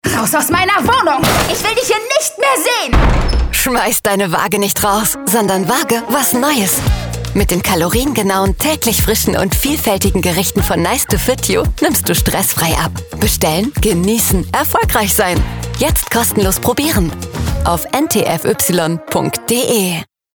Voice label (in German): Funkspot; NTFY Deutschland